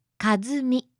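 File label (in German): "Kazumi", japanische Mädchennamen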